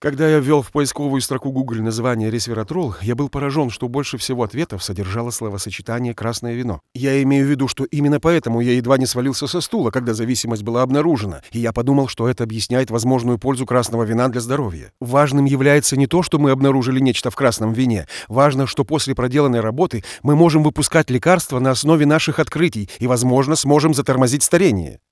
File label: zg dinamika rus